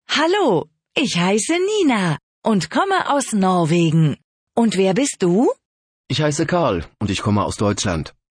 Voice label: dialog